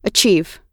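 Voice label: "achieve", American English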